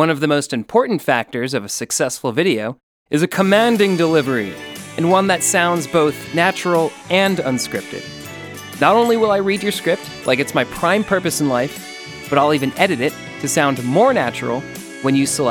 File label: Voice Sample, intro